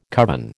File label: "coven", American